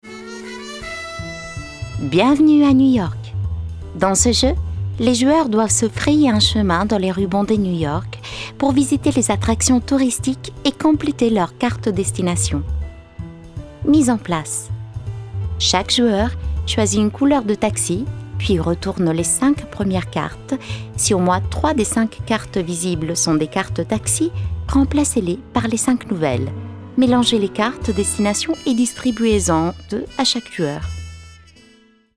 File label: italian video games